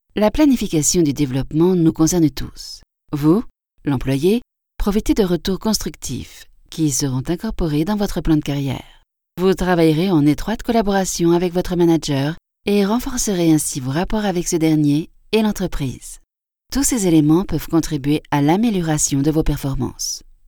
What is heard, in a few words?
french european female e learning